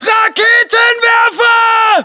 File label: US threat rpg, battlechatter